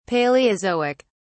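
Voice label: "palaeozoic", British English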